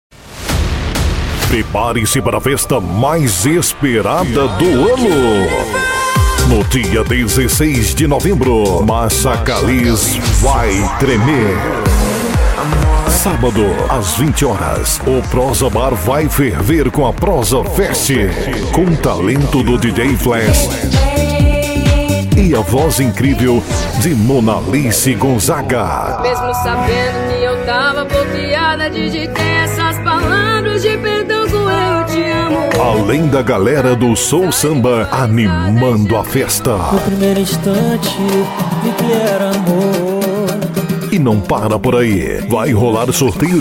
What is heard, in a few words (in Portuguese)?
LOCUTOR; chamadas; Prosa Bar